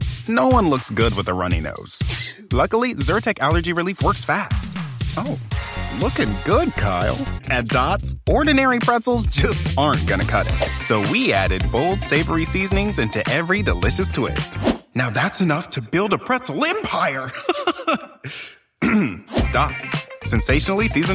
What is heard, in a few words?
Commercial